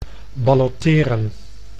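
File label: "balloteren", Dutch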